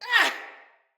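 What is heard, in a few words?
Attack Corrupted Sprouts, en; Battle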